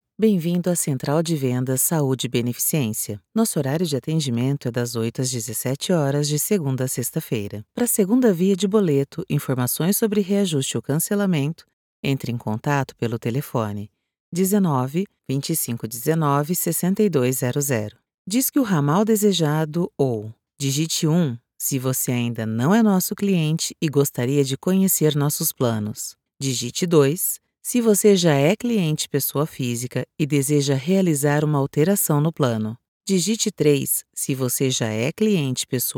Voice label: Portuguese Brazilian IVR

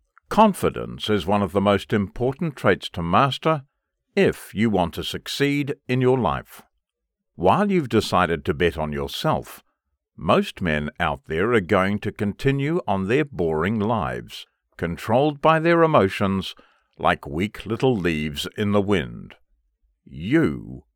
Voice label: english mid atlantic commercial

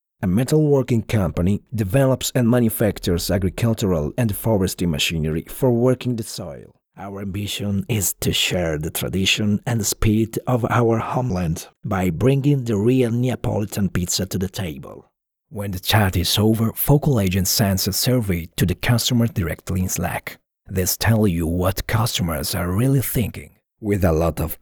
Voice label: english corporate

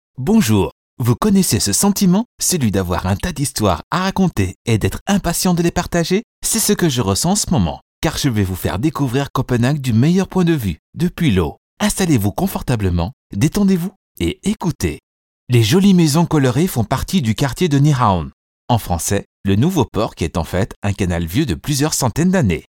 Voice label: french e-learning

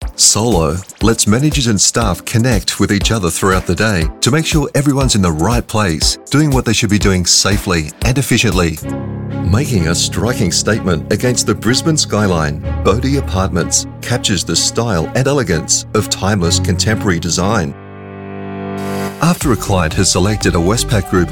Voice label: english australian narration

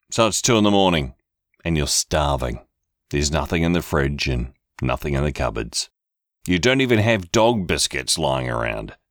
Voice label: English New Zealand

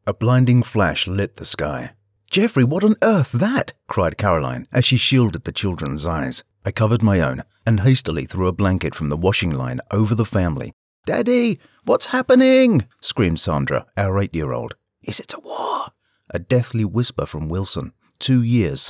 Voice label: english british audiobooks

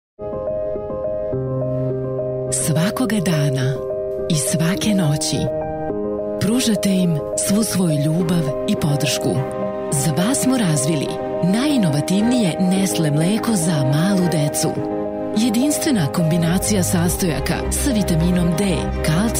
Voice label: Serbian commercial

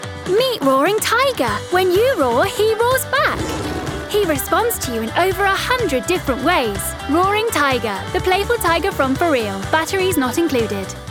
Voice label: English British others

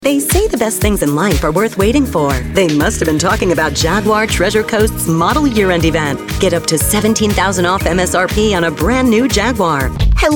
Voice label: english american automotive

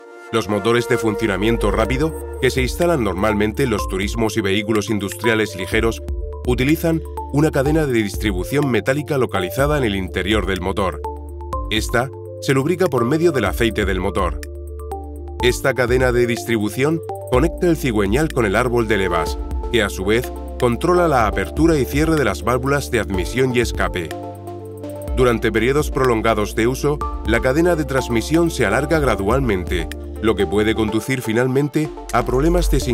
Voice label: spanish automotive

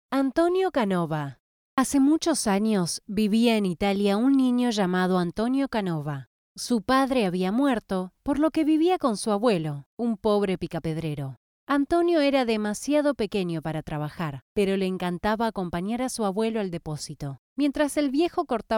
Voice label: spanish argentinian narration

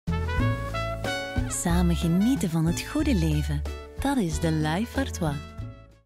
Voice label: flemish commercial, authentic voice actors

